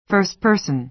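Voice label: "first person", American English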